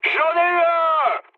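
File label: French player killed enemy mood high; War Thunder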